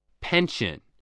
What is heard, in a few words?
"pension", English, voice